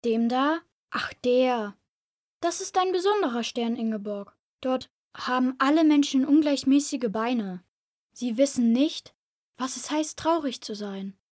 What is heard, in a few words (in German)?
synchron